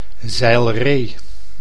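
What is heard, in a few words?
"zeilree", Dutch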